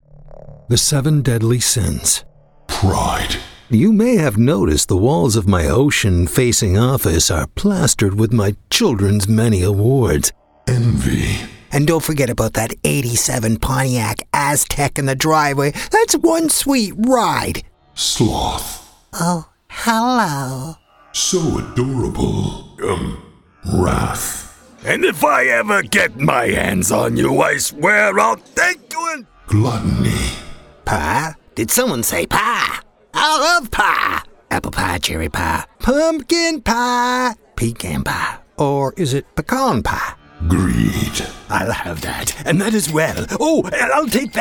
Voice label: english canadian video games